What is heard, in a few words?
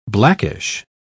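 "blackish", British English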